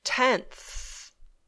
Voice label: "tenth", English